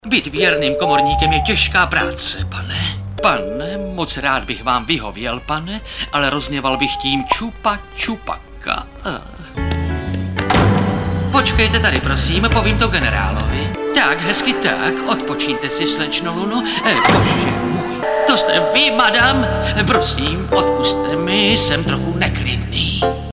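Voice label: male, Czech, drama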